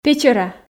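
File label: "печӧра", Komi, female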